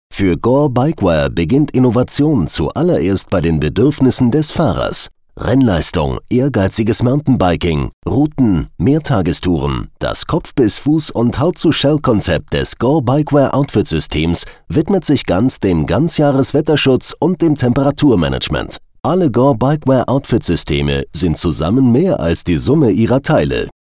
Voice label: german male